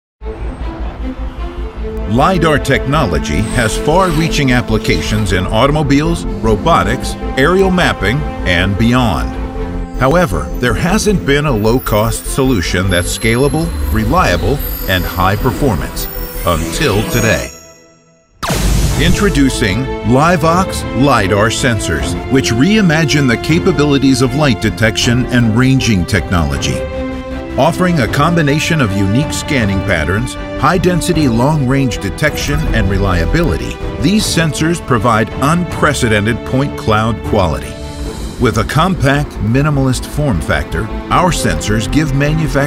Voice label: Product video s Zakelijk, VOICEOVER